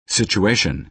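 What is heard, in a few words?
"situation", American English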